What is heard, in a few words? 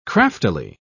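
"craftily", British English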